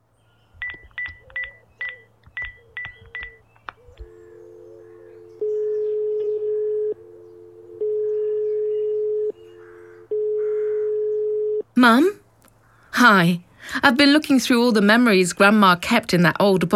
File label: audioguia english; vrural